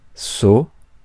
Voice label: "seau", français

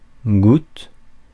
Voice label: "goutte", français

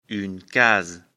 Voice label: "case", lecture fr

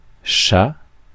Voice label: "chat", français

